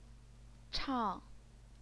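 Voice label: "chang", fourth tone, Chinese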